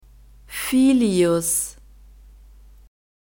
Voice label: "filius", German; masculine